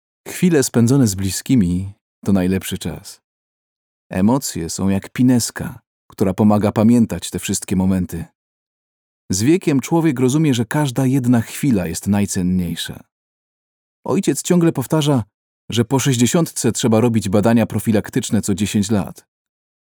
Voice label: Slow Narration

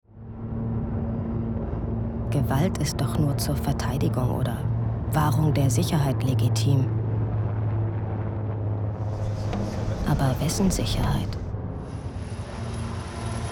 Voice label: Doc Fiction; Welcome to the New World

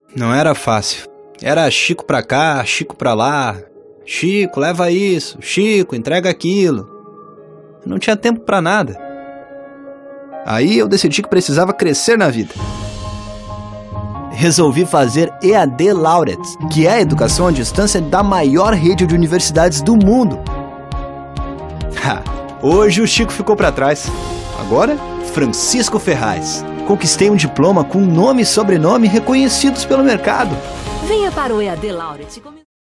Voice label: ACTING; EAD Laureate

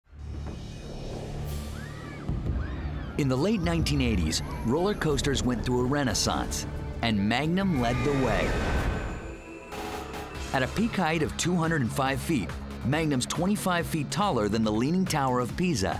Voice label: Narration

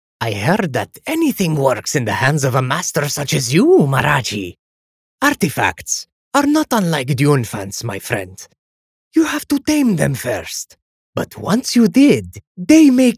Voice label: Sneaky Character; ZAHOURI

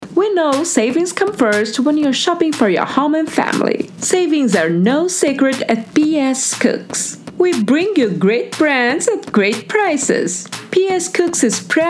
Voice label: English 2 versions trilha; Voice123

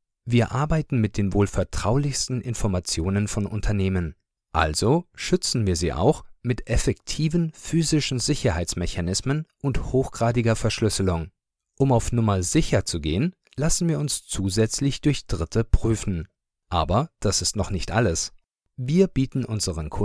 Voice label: ELearning Corporate

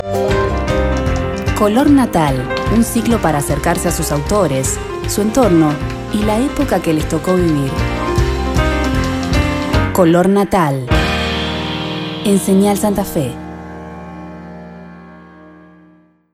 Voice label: es AR TV PROMO

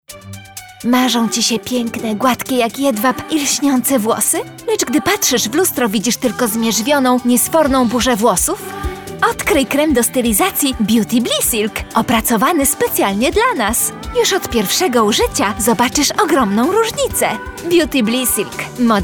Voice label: POLISH Commercial Girl Teenager

